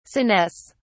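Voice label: "senesce", English, British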